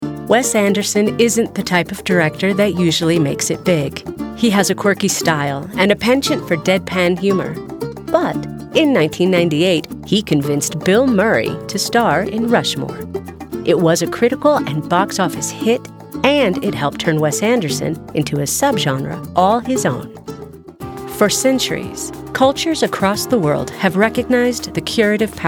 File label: Narration